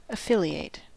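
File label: "affiliate", US English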